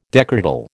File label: "decretal", American